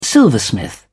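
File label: "silversmith", British English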